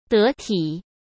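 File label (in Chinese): "得体", Mandarin Chinese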